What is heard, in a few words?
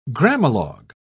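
"grammalogue", English, British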